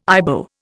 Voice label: "Ibo", Indian

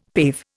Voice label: "pave", Indian; Dictionary

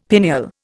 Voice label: "pineal", Indian; Dictionary

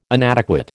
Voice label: "inadequate", American

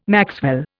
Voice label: "maxwell", Indian

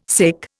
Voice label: "sic", Indian

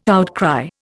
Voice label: "outcry", Indian

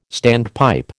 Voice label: "standpipe", American

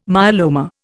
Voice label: "myeloma", Indian

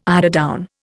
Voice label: "eiderdown", Indian

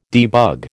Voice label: "debug", American

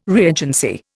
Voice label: "reagency", Indian

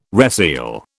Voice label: "reseal", British

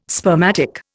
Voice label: "spermatic", Indian

